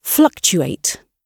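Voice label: "fluctuate", British English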